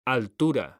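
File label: "altura", Spanish, male; mekanta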